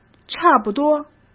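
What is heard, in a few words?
"差不多", Mandarin Chinese; chinese-flashcards; hsk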